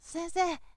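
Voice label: "sensei", Japanese, boy; shonen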